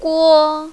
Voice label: "guo1", mandarin, female

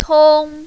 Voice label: "tong1", mandarin, female

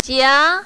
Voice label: "jia2", mandarin, female

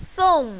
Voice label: "song4", mandarin, female

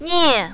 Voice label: "nie4", mandarin, female

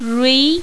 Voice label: "rui2", mandarin, female

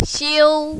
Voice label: "xiu1", mandarin, female

